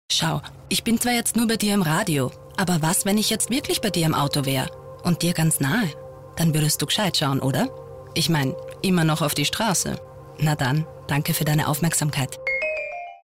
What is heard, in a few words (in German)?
Frau